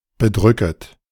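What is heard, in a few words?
"bedrücket", German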